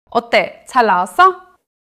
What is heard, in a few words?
neutral, monologue